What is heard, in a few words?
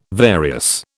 "various", British